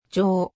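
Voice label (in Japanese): "じょ", Japanese; 五十音; 基礎日語五十音聽力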